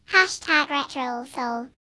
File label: retro hashtag kid